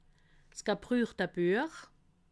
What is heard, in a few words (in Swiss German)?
"gebruchte", alsace